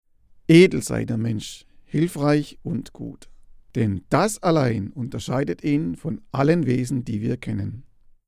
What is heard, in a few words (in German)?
Wortbeispiel Unbearbeitet